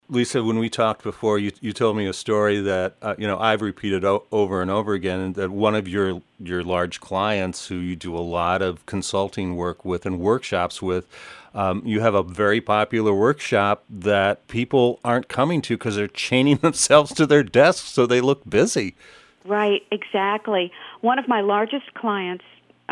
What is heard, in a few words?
promo